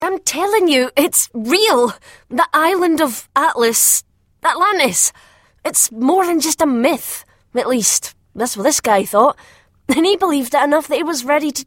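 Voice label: scottish adventurer explorer, Youthful Scottish Female Voice Actor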